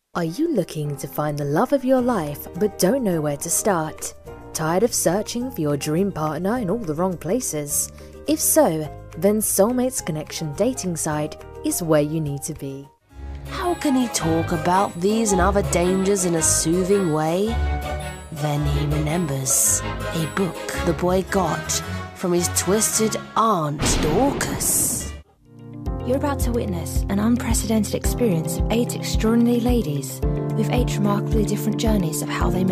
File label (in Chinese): 外籍女英 外语 英式英语